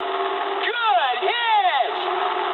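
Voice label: English bomb success mood high; War Thunder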